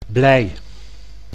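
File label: "blij", Dutch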